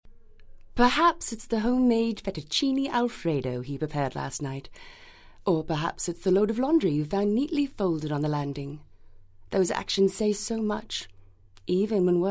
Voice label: Voiceover; Nocturnal Rest